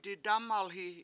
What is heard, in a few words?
"didAmalhi7", Washo; I will listen